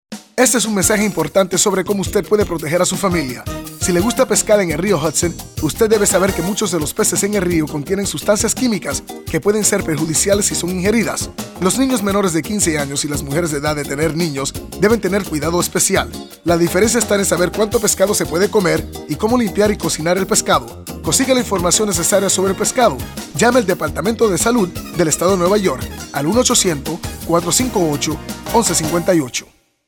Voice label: spanish; hudson river